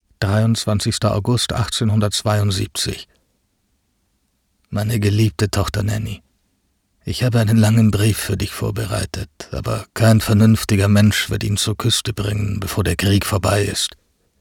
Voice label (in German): synchron; davidlinvingstone